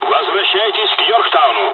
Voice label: mission fly, dialogs russian; War Thunder